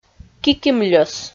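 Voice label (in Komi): "кикимльӧс", Komi, female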